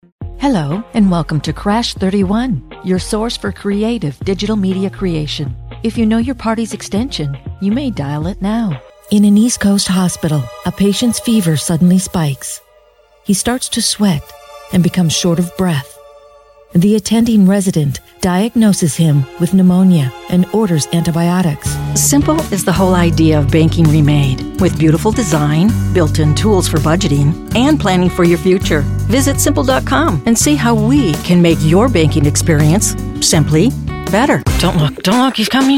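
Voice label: female, English American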